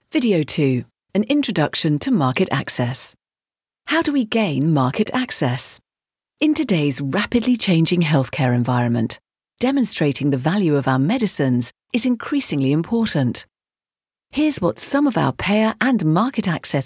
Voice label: idents and promos

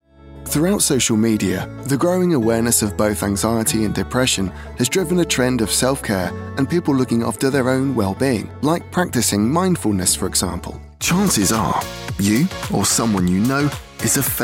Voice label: soft warm empathetic

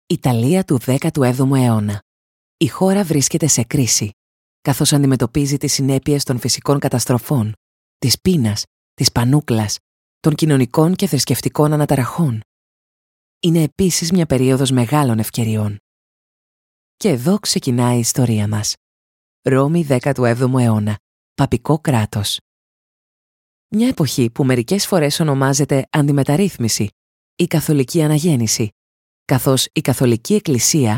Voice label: greek female voice documentary

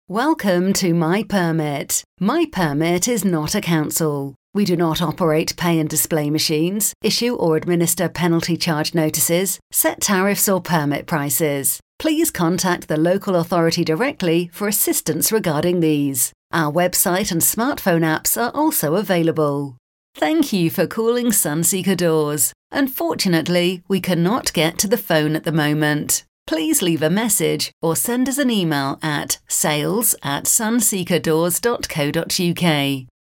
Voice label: on-hold

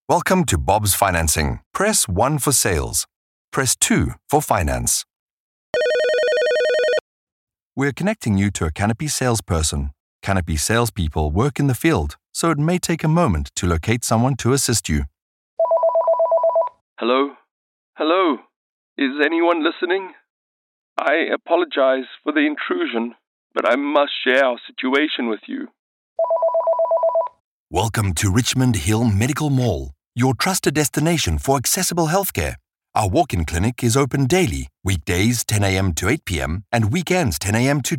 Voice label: south-african voiceovers telephone

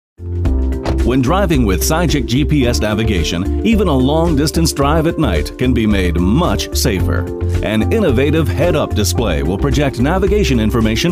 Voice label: american explainer

